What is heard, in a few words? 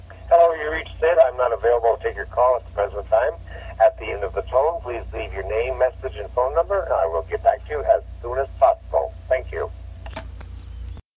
Voicemail; Messages that make me laugh